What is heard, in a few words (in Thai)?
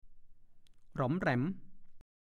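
"หร๋อมแหร๋ม" ภาษาโคราช; กระจุก หย่อม นิดหน่อย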